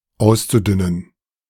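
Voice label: "auszudünnen", German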